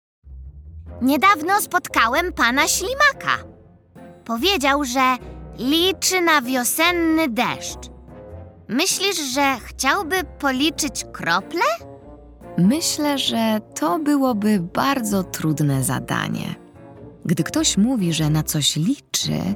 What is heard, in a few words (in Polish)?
narracja; czasopismo mis; wiosna; rozmowa